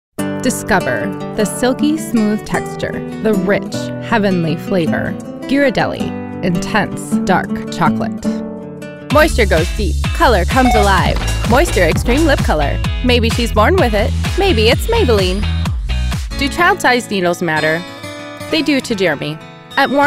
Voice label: English American